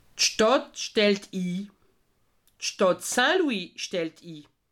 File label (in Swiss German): "stadt", alsace